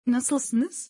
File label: how are you in turkish friendly; greetings in turkish